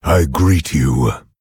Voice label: Orc Emote Greetings